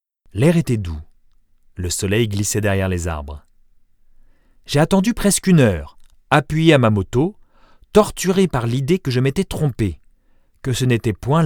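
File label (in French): audiobook medium pose, voix off masculine; Je peux adopter tous les styles avec ma voix off masculine naturelle, posée, souriante ou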